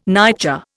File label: "nightjar", Indian